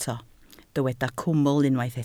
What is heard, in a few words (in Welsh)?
"cwmwl", Welsh